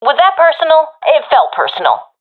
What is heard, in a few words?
taking fire friendly, professional fem; vo; Counter Strike Model Pack; CS:GO